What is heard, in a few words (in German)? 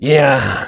Soldier yes, de; (ruhig, ohne Emotionen) "Ja..."